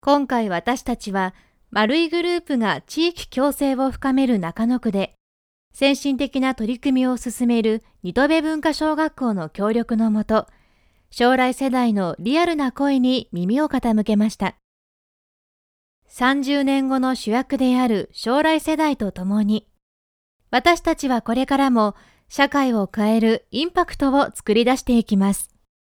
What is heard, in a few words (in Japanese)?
ボイスサンプル VPナレーション